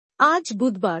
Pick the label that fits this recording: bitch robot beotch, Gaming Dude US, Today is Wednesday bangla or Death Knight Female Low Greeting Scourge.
Today is Wednesday bangla